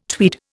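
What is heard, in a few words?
"tweed", Indian English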